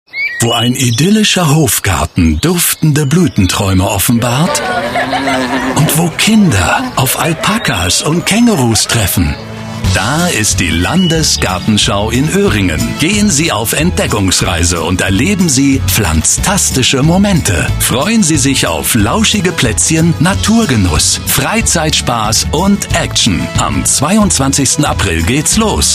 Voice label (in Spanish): comercial